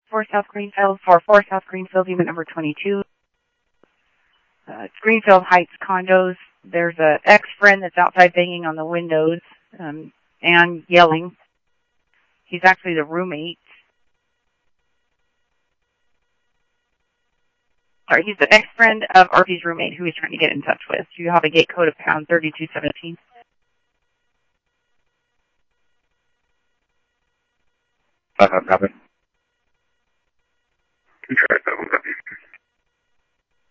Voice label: Mesa Simulcast police; jumper

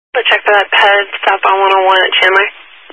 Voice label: Highway Patrol, scanner; DPS EAST

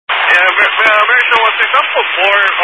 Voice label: Highway Patrol, scanner; DPS

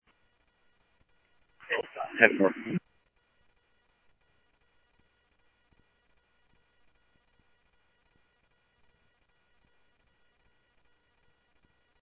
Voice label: Scottsdale Police, scanner audio; SCTSDLE PD - PD2 ScottsdalePolice; H-Scottsdale

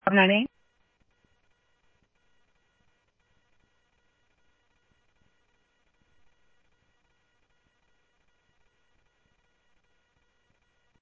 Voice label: Security, scanner audio

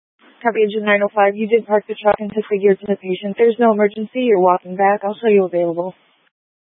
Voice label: Fire Dispatch

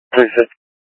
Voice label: Mutual Aid-Intersystem Interop B, scanner

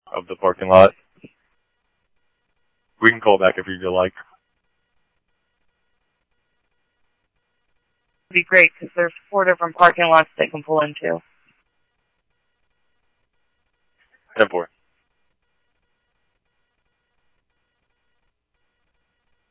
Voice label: Police, scanner audio

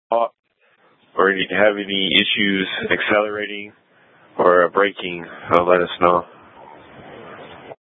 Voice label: Public Transit System Light Rail Ops, scanner; Regional Wireless Cooperative (RWC) - South Mountain High; Valley Metro

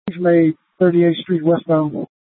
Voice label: Public Transit System Light Rail Ops, scanner; Valley Metro

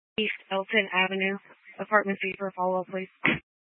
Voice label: Mesa PD Dispatch

